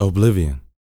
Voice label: TALK, Vocal, SCI-FI; 5 SCI-FI CHZ